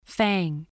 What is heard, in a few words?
"fang", voice